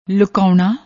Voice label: "lookaouna", Punjabi

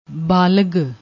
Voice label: "baalag", Punjabi

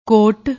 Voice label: "koat", Punjabi; ਕੋਟ

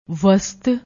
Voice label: "vast", Punjabi

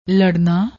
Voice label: "larrna", Punjabi; ਲੜਨਾ

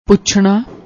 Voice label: "puchanna", Punjabi; ਪੁੱਛਣਾ

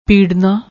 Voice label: "peerrna", Punjabi

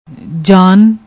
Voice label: "jaan", Punjabi

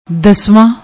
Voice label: "daswan", Punjabi; ਦਸਵਾਂ

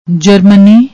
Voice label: "germany", Punjabi

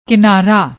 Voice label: "kinara", Punjabi; ਕਿਨਾਰਾ

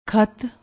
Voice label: "khaat", Punjabi

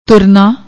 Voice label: "toorna", Punjabi; ਤੁਰਨਾ; تُرنا; verb, intransitive; to depart; Gurmukhi; Shahmukhi

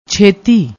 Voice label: "chheti", Punjabi; ਛੇਤੀ

